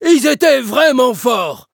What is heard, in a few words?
defeat, fr; Cookie Run